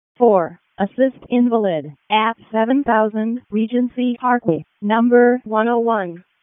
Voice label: WC EMS ALERT, p25; wake